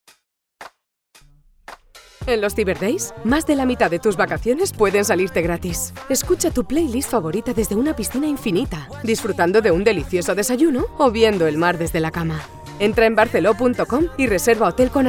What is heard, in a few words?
spanish radio imaging